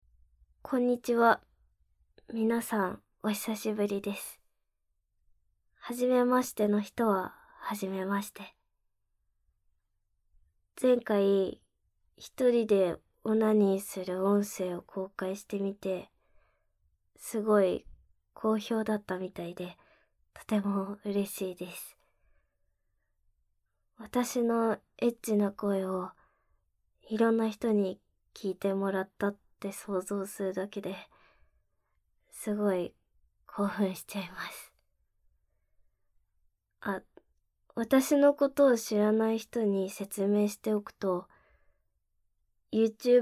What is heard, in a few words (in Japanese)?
インタビュー, 自己紹介; 【生音収録】変態オホ声YouTuberがリスナーとオフパコしてみた